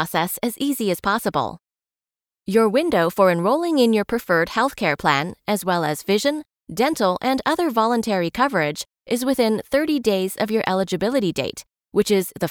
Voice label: Corporate Sample New Hire Benefits

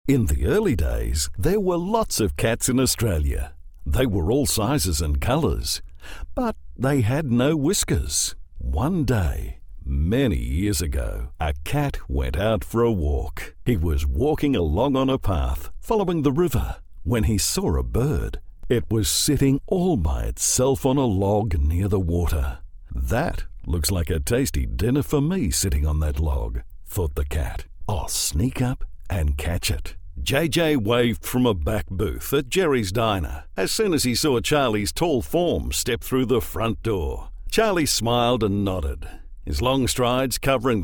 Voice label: Audio Book

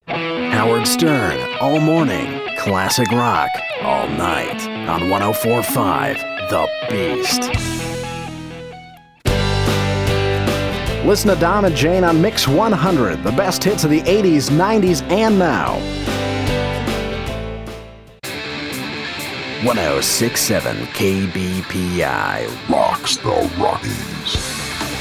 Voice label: Radio Imaging; RadioImagingRedux

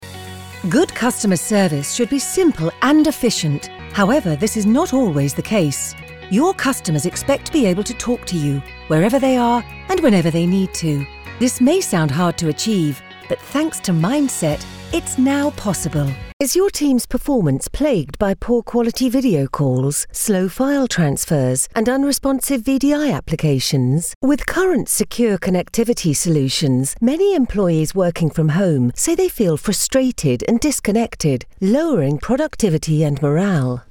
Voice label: Corporate E-Learning

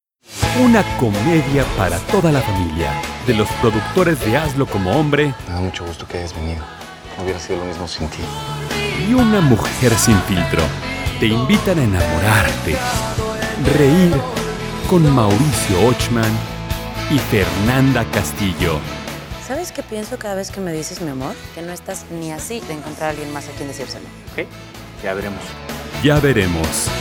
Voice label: trailer

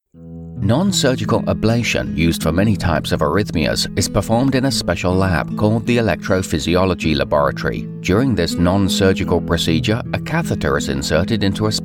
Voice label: Industrial Narrations Mix

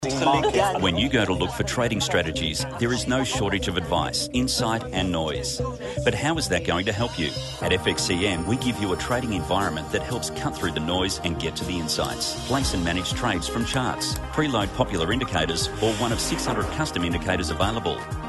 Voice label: commercials

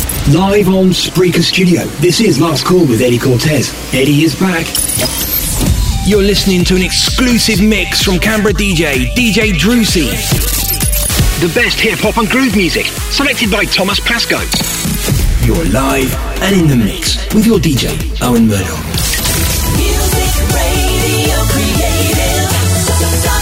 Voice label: Radio DJ

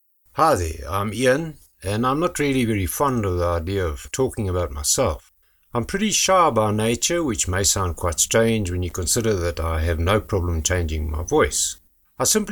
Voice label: Natural Voice

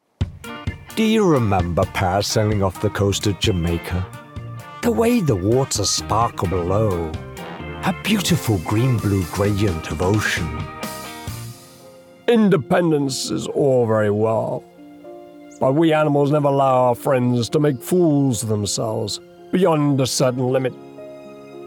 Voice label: British Male Voice Over

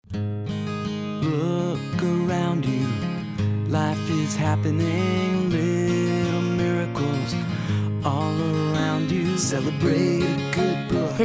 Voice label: spot

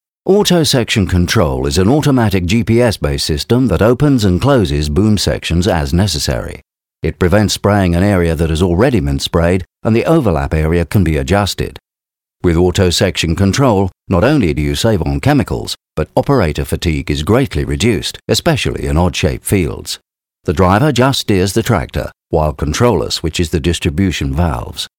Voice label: British

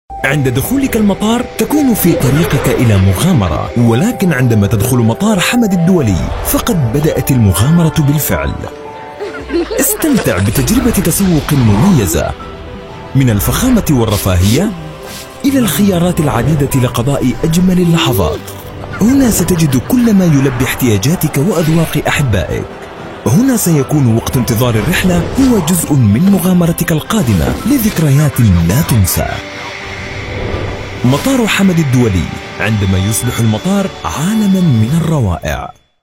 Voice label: voiceover; Hamad International Airport

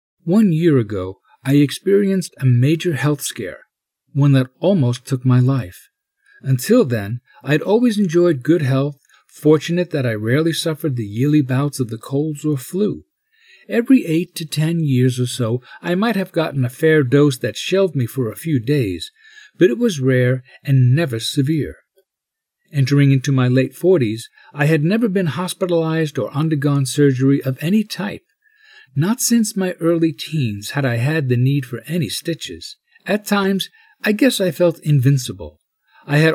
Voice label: Sample Narration

